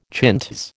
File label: "chintz", American